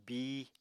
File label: "bíi", Apatani